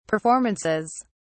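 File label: "performances", British English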